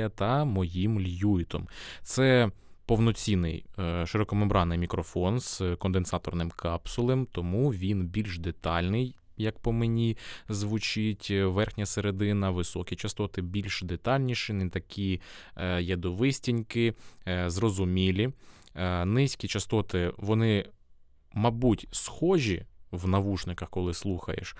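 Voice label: lewitt 440 pure voice test; Тест Голосу; Arturia MiniFuse Recording Pack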